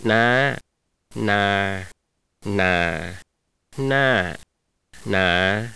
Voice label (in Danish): thai; na:H na:M na:L na:F na:R